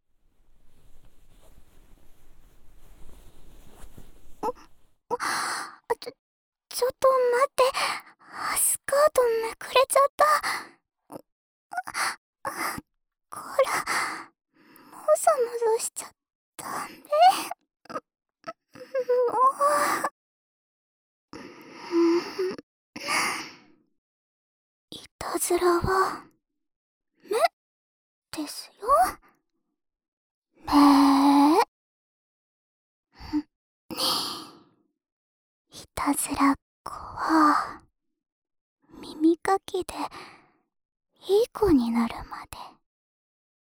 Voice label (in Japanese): 膝枕で耳かき（左耳）, ASMR